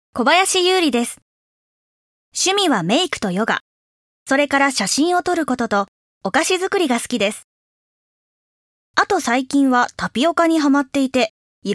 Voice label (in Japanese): ナレーション